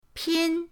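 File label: "pin1", Mandarin Chinese, pinyin; pinyindct-open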